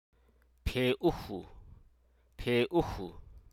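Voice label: Hruso Aka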